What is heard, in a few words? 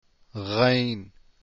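ghain, arabic letters; deen path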